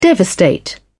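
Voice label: "devastate", British English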